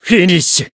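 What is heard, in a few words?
Voice finish, Japanese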